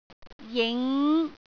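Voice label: "jing5", Cantonese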